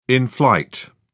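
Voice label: "in flight", British English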